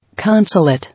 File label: "Consulate", English